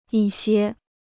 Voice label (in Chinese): "一些", Chinese